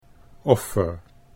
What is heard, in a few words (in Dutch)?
"offer", Dutch